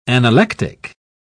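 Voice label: "analectic", British English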